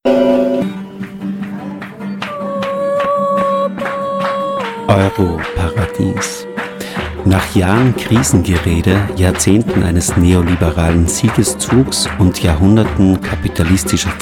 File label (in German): jingle mit text; europaradies